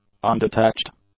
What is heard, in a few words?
"undetached", english; adjectives